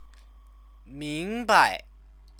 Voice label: "mingbai", Chinese; 明 白; understand; verb